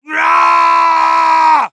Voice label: battlecry; Heavy; "¡Raaaaah!"